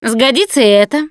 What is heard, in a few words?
take frying pan, russian, Survivor Voice Producer